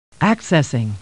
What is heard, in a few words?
Computer Voice; Star Trek; StarTrekLCAR